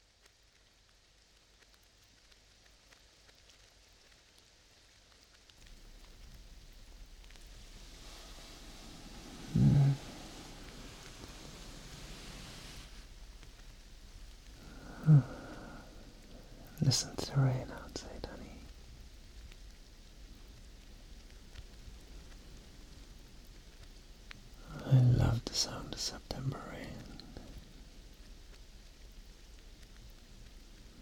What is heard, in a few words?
Rainy Morning Poetry Reading